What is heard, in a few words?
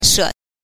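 "she", neutral tone, Mandarin Chinese pinyin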